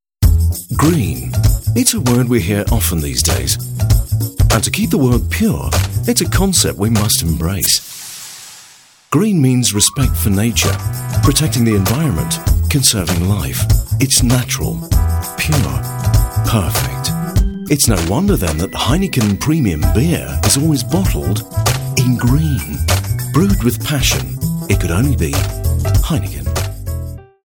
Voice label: international standard english vo; for heineken

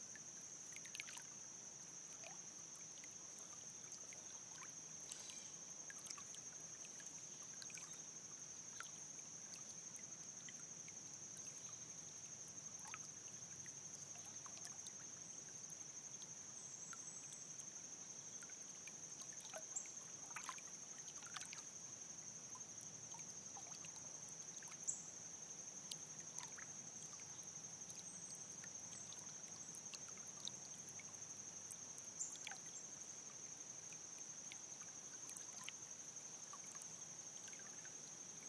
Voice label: babble

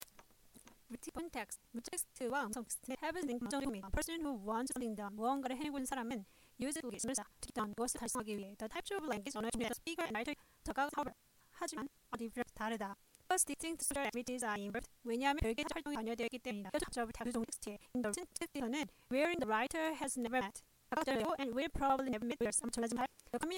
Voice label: english reading practice written text

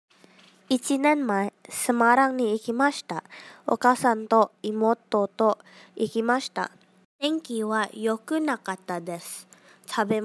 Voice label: japanese speaking

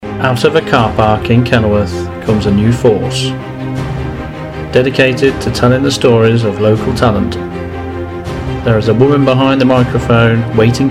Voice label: film show trailer; radio abbey

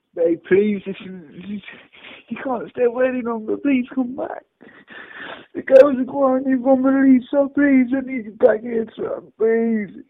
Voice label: phone message

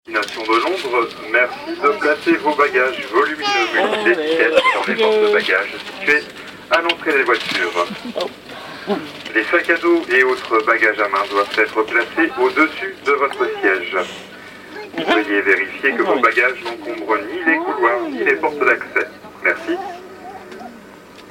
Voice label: eurostar announcement paris london

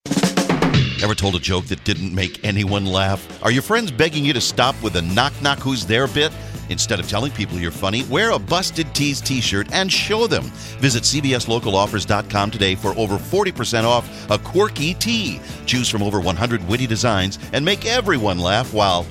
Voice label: commercial; local offers busted tees

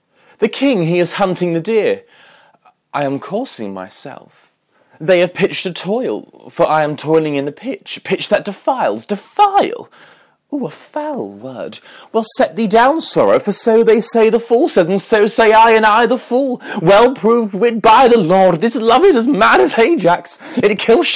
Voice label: classical monologue; love labours lost william shakespeare character berowne

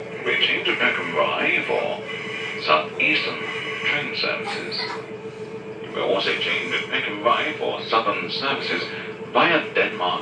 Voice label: peckham rye on train announcement

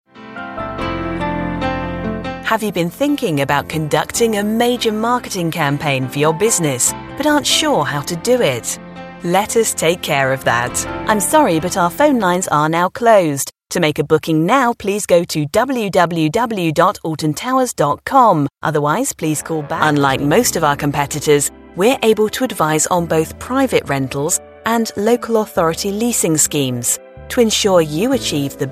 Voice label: telephone messaging

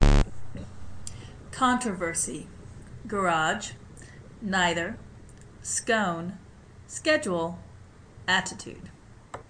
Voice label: Austin Texas USA female

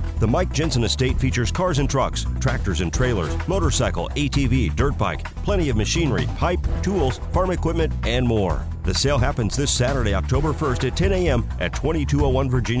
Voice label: auction; Kuiken auction; Jensen estate

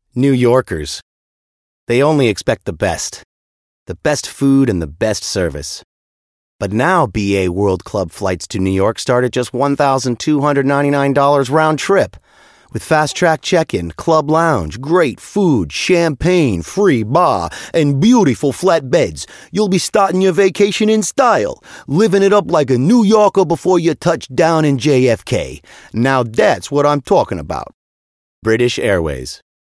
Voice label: advert; british airways

